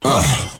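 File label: "uh", male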